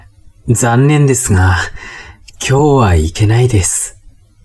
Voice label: man